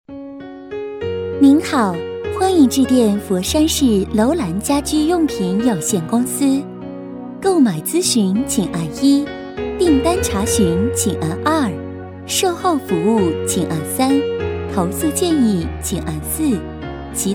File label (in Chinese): 彩铃 楼兰家居彩铃 女 温柔